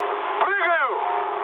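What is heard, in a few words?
Russian bailout mood med, speech; War Thunder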